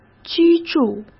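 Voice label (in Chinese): "居住", Mandarin; chinese-flashcards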